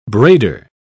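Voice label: "braider", British English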